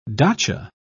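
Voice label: "dacha", British English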